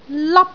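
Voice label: "lap1", Cantonese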